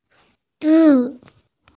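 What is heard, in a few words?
"zi4", Mandarin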